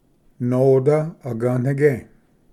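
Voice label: "noda agvnige", Cherokee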